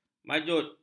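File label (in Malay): "majuj", Malay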